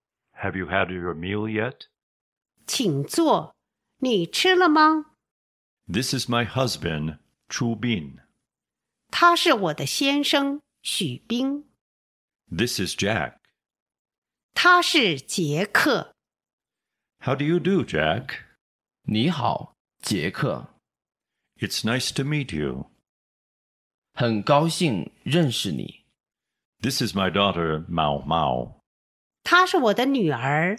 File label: Dialog